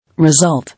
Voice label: "Result", English